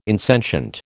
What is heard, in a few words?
"insentient", American